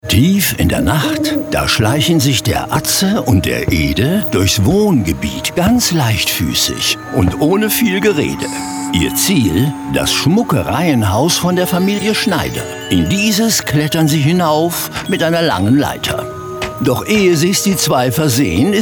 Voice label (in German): alarm und schutzanlagen langsam; krueger gmbh